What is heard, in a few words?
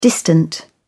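"distant", British English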